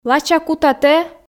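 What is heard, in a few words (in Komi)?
лача кута тэ, Komi, female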